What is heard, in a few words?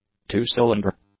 "two-cylinder", English; adjectives